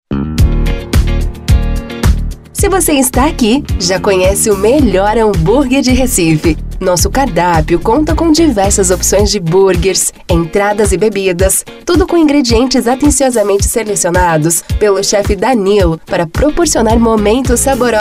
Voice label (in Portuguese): ESPERA telefonica